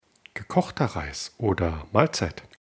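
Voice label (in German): "Mahlzeit", German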